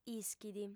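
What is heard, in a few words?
"ischit", Sardinian, female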